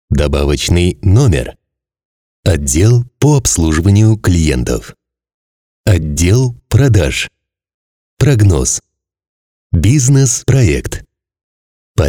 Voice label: E-Learning